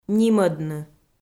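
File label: "нимӧдны", Komi-Zyrian, female; kpv audio dict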